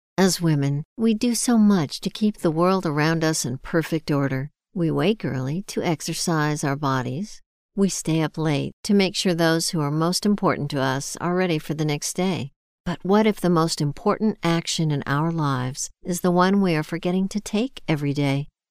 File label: NARRATIVE